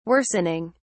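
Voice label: "worsening", British English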